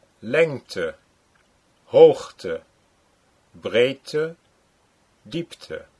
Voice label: lengte hoogte, Dutch

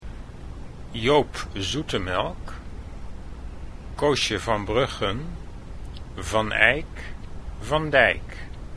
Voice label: "zoete melk", Dutch

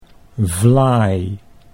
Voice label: "vlaai", Dutch

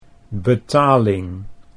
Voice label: "betaling", Dutch

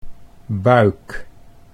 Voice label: "buik", Dutch

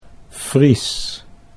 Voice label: "Fries", Dutch

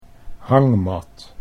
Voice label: "hangmat", Dutch

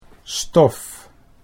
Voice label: "stof", Dutch

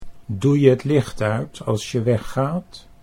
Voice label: "doe je het licht uit als je weggaat", Dutch

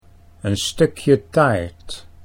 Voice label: stukje taart, Dutch

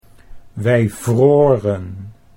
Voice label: "wij vroren", Dutch

